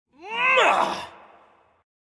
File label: victory, vox; smite; voicepack; hercules